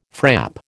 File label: "frap", American